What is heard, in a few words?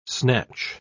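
"snatch", English word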